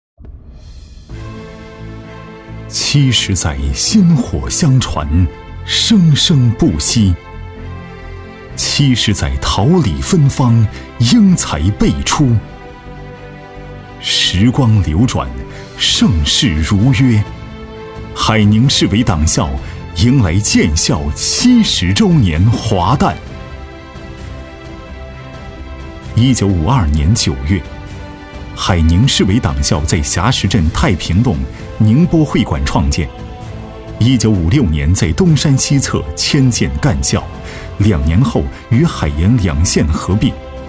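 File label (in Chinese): 男【宣传】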